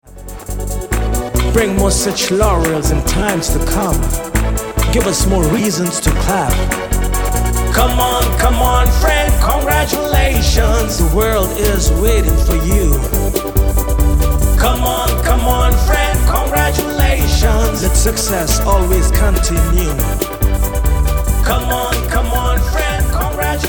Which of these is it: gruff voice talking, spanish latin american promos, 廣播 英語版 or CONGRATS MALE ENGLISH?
CONGRATS MALE ENGLISH